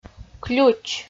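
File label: "ключ", Komi, female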